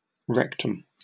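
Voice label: "rectum", British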